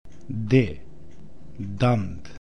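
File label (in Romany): "Dand", Romani; Romska-alfabetet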